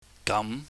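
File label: "gam", Cantonese, mid rising tone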